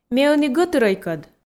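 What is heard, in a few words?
Komi, female; ме ӧні гӧтырӧйкӧд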